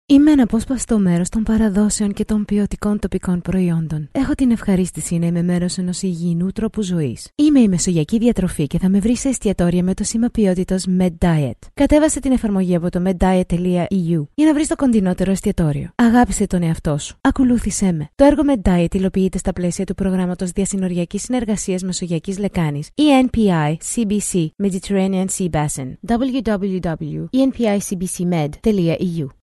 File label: greek commercial